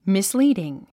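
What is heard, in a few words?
"misleading", English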